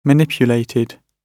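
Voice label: "manipulated", British English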